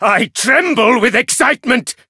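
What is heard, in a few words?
Voice encounter fear; cyrus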